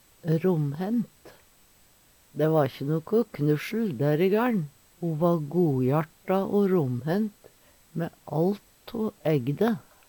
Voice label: rommhent, numedalsmal

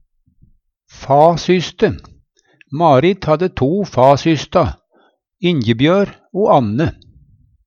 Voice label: fasyste, Numedalsmål; Substantiv hokjønn

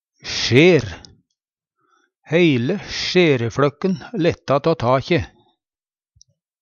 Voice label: "sjer", Numedalsmål; Sjerin helt eit svare spetakkel då dei ørta på katten.

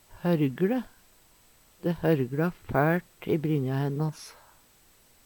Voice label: horgle, Numedalsmål

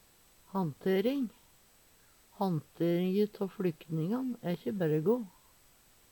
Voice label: "hanntering", Numedalsmål

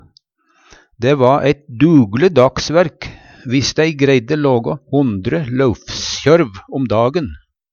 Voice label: "kjørv", Numedalsmål

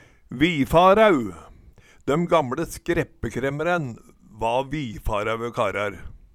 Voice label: vifaraeu, Numedalsmål

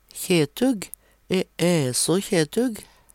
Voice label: kjetug, Numedalsmål

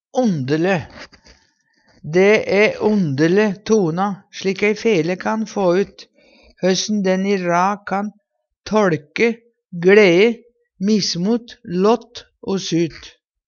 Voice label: ondele, Numedalsmål; Dæ æ ondele tona slik ei fele kan få ut, høssen den i rad kan toLke gle'e; mismot

